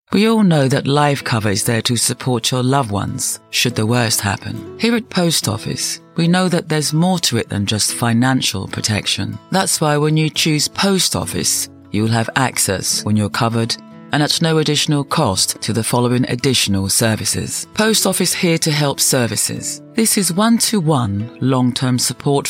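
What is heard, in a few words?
English UK, corporate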